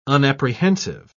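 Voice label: "unapprehensive", British English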